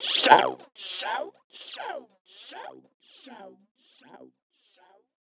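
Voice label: effects talk, Funky Vocals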